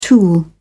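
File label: "tool", British English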